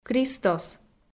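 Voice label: "Кристос", Komi, female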